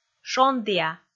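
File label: "шондіа", Komi, female; kpv audio dict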